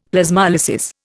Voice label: "Plasmolysis", Indian; Dictionary